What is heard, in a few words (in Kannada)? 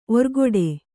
"ಒರ್ಗೊಡೆ", Kannada